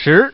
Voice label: Man